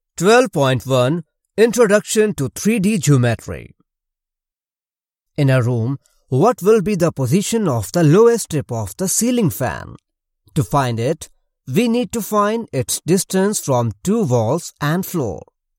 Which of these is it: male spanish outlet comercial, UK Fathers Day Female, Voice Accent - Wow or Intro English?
Intro English